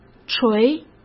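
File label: "捶" Mandarin Chinese; chinese flashcards; hsk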